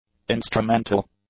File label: "instrumental", English; adjectives